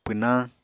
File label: "pwnañ", apatani